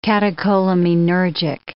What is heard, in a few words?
"catecholaminergic", English